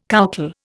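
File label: "coucal", Indian English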